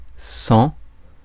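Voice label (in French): "sang", French; phonemes; Mots francais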